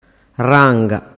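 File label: "ranga", Sicilian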